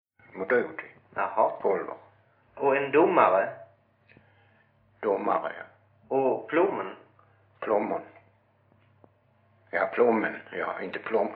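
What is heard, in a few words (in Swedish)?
Mörrum Blekinge, dialekter; Folkminnen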